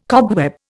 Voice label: "cobweb", Indian

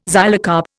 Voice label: "xylocarp", Indian

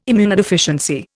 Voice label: "immunodeficiency", Indian

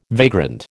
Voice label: "vagrant", American

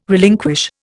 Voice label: "relinquish", Indian

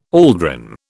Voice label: "aldrin", British

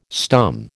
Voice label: "stum", American

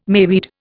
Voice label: "mayweed", Indian

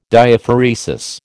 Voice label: "Diaphoresis", American

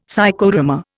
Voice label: "Psychodrama", Indian; Dictionary

